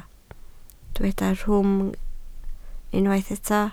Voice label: "rhwym", Welsh